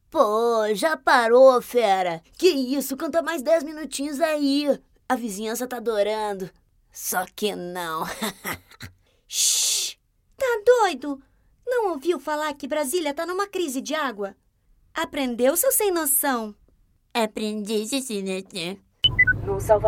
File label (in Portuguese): Caricato